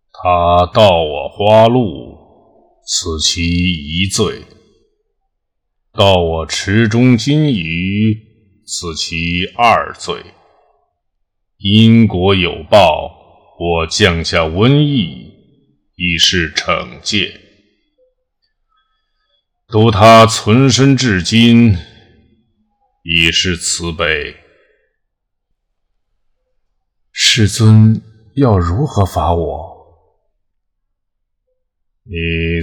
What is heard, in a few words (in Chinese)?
试音干声; 地藏菩萨